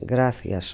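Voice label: "gracias", Spanish, Santiago de Compostela; Galicien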